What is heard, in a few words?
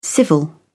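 "civil", British English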